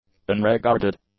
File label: "unregarded", english; adjectives